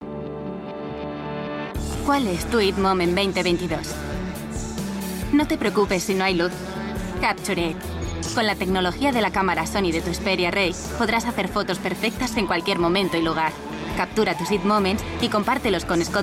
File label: Spanish EU